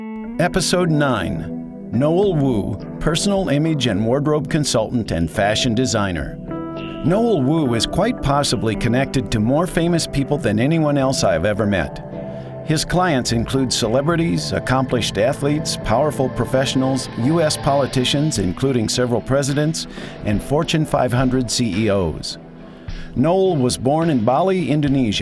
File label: Documentary Style Audiobook